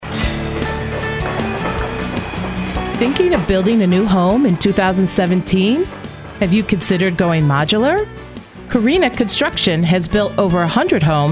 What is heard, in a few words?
radio ad